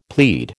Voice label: "plead", American

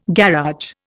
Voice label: "garage", Indian

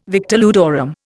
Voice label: "victor ludorum", Indian

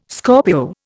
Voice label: "Scorpio", Indian

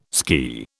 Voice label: "ski", British

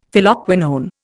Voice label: "Phylloquinone", Indian; Dictionary

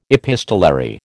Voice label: "epistolary", American

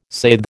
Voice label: "saithe", American

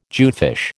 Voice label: "jewfish", American

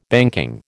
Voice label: "banking", American

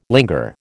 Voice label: "linger", American

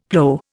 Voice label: "plow", Indian; Dictionary

